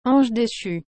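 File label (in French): "ange dechu", French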